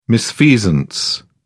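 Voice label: "misfeasance", British English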